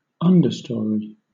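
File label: "understory", British English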